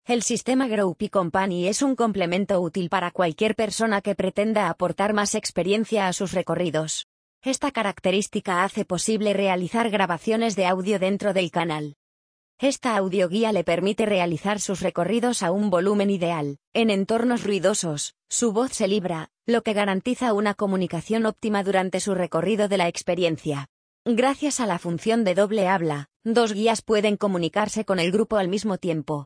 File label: Speech, Audioguide; Groupy Company